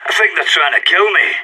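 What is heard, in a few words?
radio taking fire, voice; agents; sas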